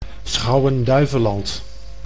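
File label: "Schouwen-Duiveland", Dutch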